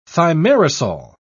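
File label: "thimerosal", British English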